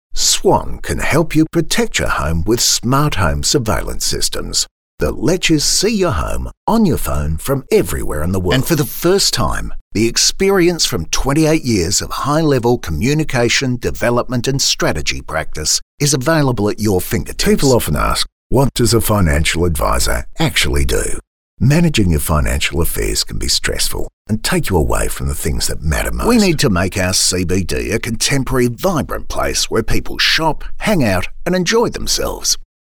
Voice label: Natural